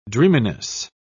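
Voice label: "dreaminess", British English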